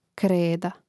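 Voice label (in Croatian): "kreda", Croatian; Mrežnik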